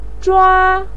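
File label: "zhua1", Mandarin pinyin; 抓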